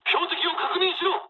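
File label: player friendly fire mood low, speech japanese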